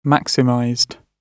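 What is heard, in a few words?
"maximized", British English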